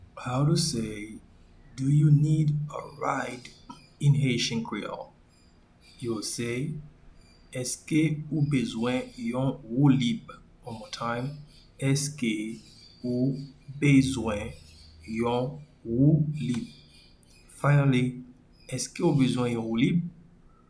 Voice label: Do you need a ride in Haitian Creole Eske ou bezwen yon woulib pronunciation